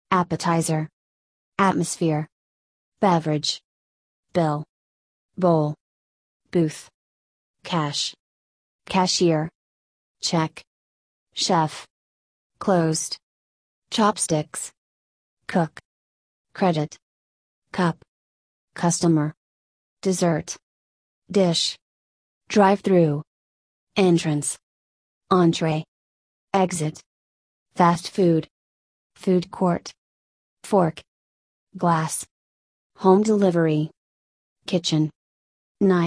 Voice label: usa; restaurants